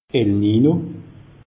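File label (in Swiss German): "el nino", Alsatian; meteo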